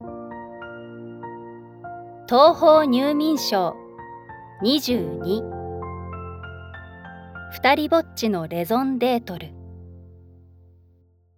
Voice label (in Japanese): タイトルコール